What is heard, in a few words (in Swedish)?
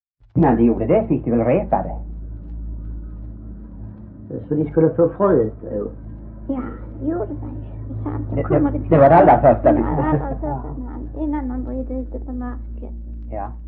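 Äspö Skåne, Svenska sagor dialekter; Folkminnen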